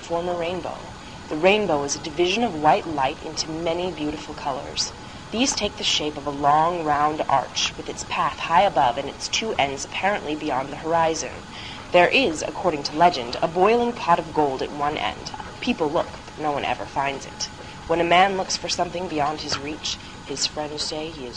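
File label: california, International Dialects of English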